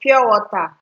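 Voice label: "pure water", Nigerian Pidgin, female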